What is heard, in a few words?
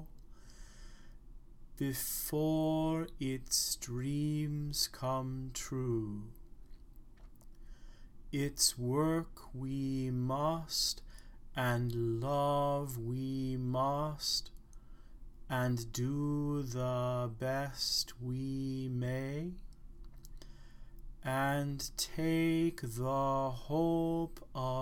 its a long way spoken slowly